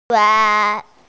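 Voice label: "gai1", Mandarin Chinese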